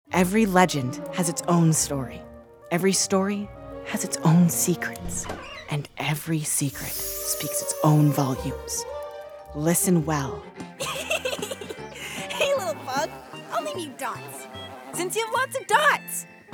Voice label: Animation Demo